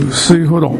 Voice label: "sefuro", minamiyamagata; 家に備え付けてあるお風呂（五右衛門風呂などではなく）。